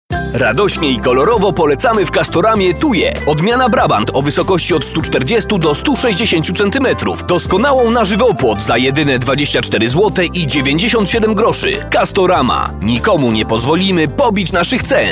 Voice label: pl commercial